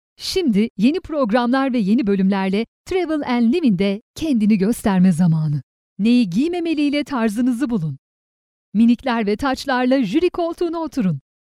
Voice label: female turkish voice over upbeat